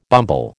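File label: "bumble", American English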